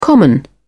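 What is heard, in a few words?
"common", English, British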